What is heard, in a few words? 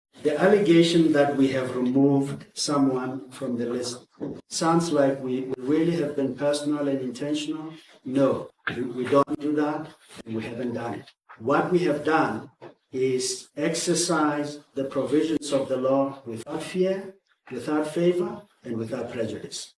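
English; Compliance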